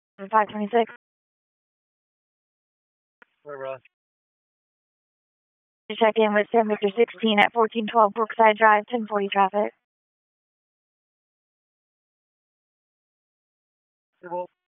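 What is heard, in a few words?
Raleigh Police Southeast District Dispatch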